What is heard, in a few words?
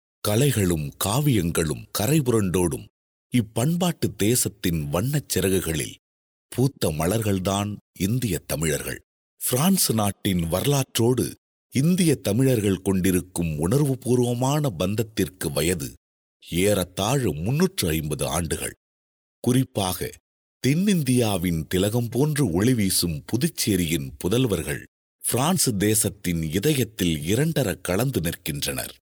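Tamil, Sri Lanka, male; tamil voice over talent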